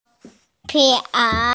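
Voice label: "pi2ao3", Mandarin Chinese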